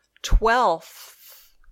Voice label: "twelfth", English

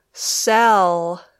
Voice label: "sell", English

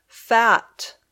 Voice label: "fat", English

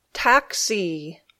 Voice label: "taxi", English

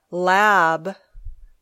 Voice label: "lab", English

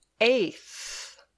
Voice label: "eighth", English

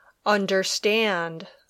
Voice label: "understand", English

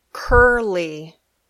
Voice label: "curly", English

